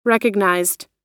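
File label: "recognized", US English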